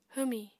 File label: "hwmi", Apatani